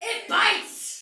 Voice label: Damage Soldier Female, Battle Barks; Dialog; en; Sinecure